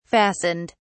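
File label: "fastened", British English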